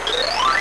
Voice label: chat; GAMECUBE; SUPER MARIO SUNSHINE